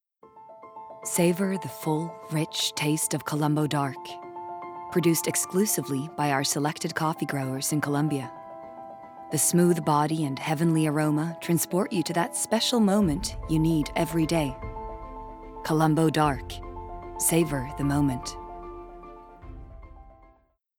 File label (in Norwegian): no reklame soft